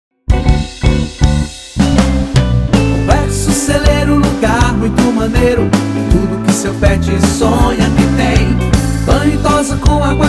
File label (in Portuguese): JINGLE PET SHOP COM LOCUCAO; CELEIRO